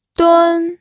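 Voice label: "dun", pinyin, first tone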